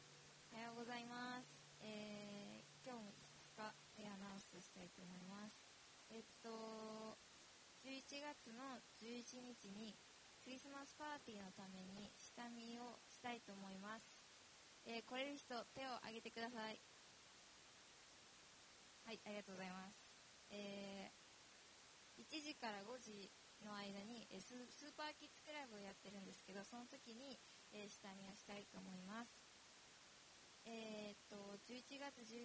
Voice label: announcement; sunday message 2019